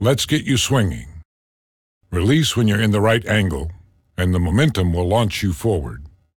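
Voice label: tutorial new; Bionic Commando 2009 PC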